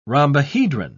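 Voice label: "rhombohedron", British English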